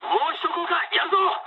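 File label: bomb failure mood med, speech japanese; War Thunder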